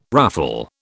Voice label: "ruffle", British

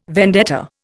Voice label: "vendetta", Indian

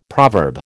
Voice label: "proverb", American

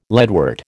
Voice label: "leadwort", American

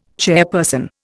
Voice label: "chairperson", Indian

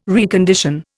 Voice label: "recondition", Indian

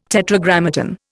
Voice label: "Tetragrammaton", Indian

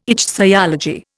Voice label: "ichthyology", Indian

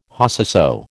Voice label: "Whosesoe", American

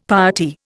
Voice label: "piety", Indian; Dictionary

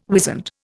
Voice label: "wizened", Indian

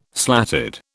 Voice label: "slatted", British

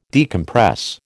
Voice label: "decompress", American

